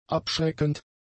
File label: "abschreckend", German; adjectives